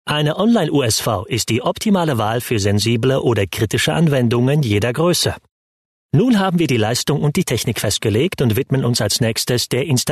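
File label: German, male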